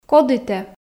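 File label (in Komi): коді тэ, Komi, female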